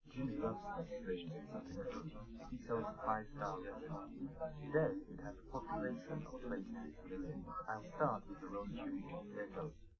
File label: male live rec; SiSEC10; underdetermined